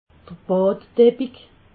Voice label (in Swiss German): "baddeppig", alsace